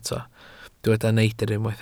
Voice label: "neidr", Welsh